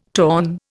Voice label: "torn", Indian